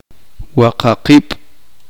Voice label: uspanteko seis

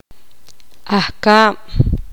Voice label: poqomam abeja

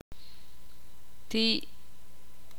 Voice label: "corteza", ixil

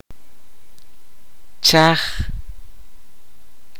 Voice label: "cenizas", Kaqchikel

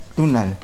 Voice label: Nawat palabras sol; pipil

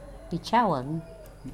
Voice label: Nawat palabras estrecho; pipil

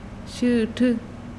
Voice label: "espalda", mazahua

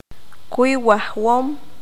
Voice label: "maestro", qanjobal

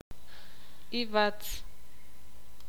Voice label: "ancho", ixil

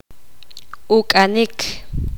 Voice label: poqomam beber

